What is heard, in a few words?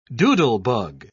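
"doodlebug", British English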